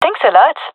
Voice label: thankful; vo; gendermerie fem epic